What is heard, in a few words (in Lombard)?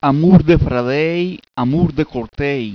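Amur de fradèi, amur de cortèi., bergamasco; PROVERBI BERGAMASCHI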